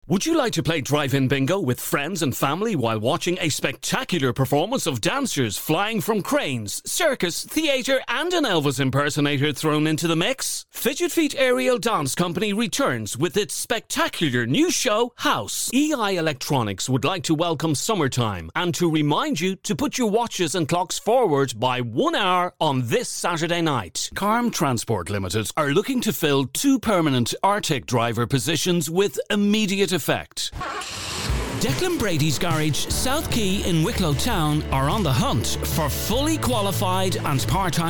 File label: Commercial VO Mix